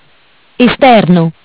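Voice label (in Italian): "Esterno", Italian; Bentel; BLE320; MESSAGGI VOCALI; Audio BGS220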